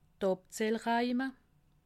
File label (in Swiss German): "abzahlreime", alsace